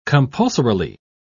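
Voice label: "compulsorily", English, British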